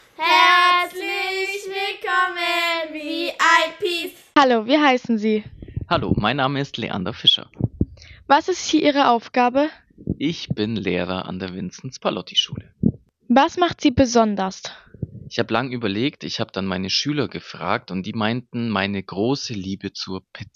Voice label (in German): Sendung Ohne Musik, schulradio; Unser Schulradio – The Voice of ViPs; Sendung vor den Pfingsferien